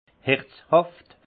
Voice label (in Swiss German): "herzhaft", alsace; vin; chaleureux